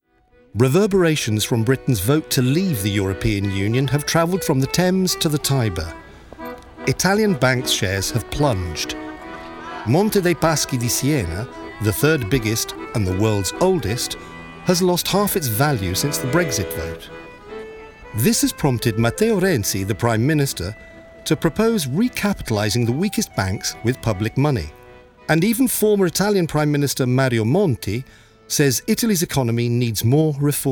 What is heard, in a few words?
Documentary English